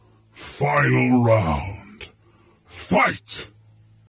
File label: battle narrator "final round, fight"